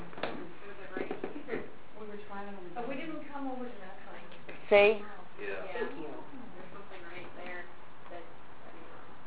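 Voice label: whispering in the dining room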